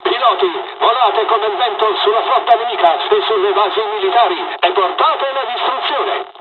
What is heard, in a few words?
japanese first wave, dialogs italian; War Thunder